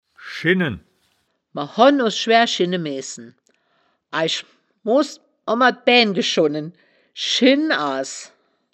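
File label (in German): "schinnen", wittlicher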